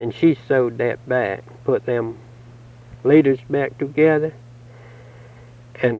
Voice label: Interview with 65 year old white male Mountain Rest South Carolina, american english dialect